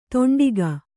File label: "ತೊಂಡಿಗ", Kannada